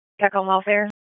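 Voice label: Dispatch, p25; WSO DISP 1; Wake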